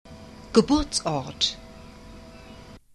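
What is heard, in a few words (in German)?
"Geburtsort", German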